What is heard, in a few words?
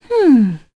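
Vox Think, English; Aisha; kingsraid; voices heroes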